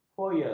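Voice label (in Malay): "foya", Malay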